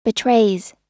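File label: "betrays", US English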